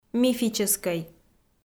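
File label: "мифическӧй" Komi, female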